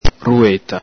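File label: "rueta", Venetian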